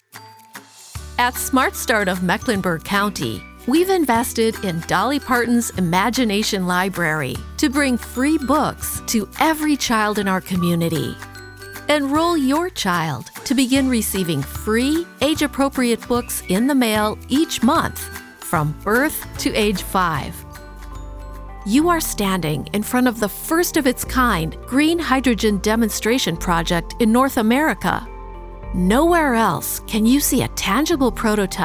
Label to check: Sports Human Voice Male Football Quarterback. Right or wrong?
Wrong